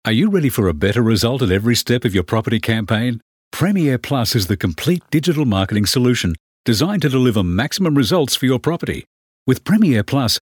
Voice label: Natural